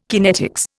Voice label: "kinetics", Indian